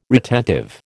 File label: "retentive", American